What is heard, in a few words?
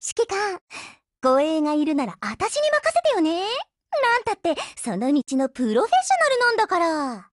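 HELLO Japanese, Voice; GF Alarm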